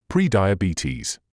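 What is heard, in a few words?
"pre-diabetes", British English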